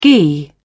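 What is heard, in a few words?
"ghee", British English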